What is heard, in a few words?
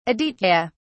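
"aditya", British English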